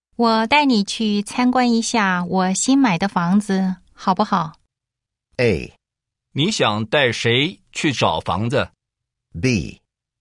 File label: chinese listen